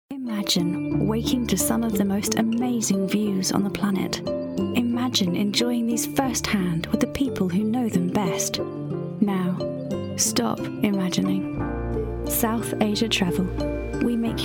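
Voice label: English British, female, narration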